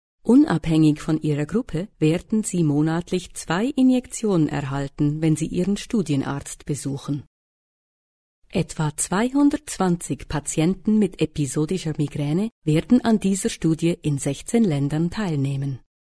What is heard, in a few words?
female german voice over narrative